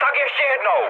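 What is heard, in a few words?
bomb failure, Czech, mood med; War Thunder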